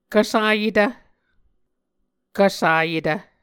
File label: "kvsayetv", Muscogee